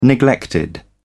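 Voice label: "neglected", British English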